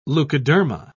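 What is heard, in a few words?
"leukoderma", British English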